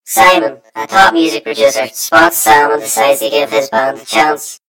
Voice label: story voiceover; yumpod